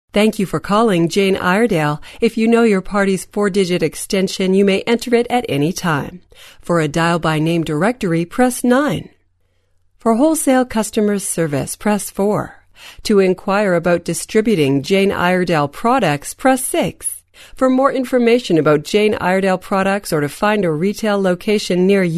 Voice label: Voice Prompt